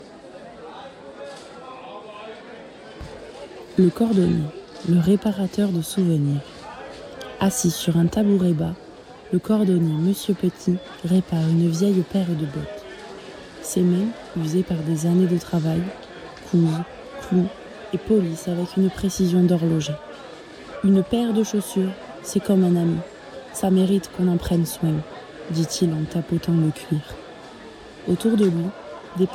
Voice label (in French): place marche narrateur